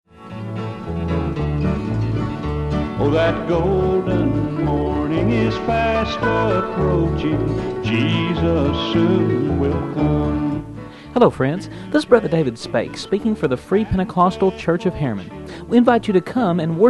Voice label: Sunday School Commercial